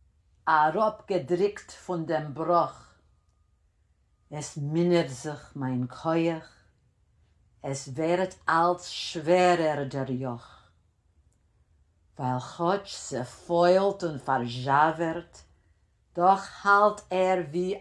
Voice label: Der Yokh verse 2 pronunciation; all the way through